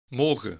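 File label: "mogen", Dutch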